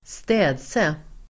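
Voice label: "städse", Swedish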